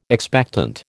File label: "expectant", American